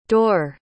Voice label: "dhoire", pronounce